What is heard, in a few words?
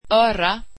swedish ear